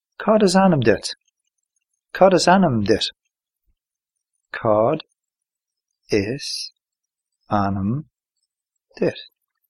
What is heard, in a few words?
what is your name irish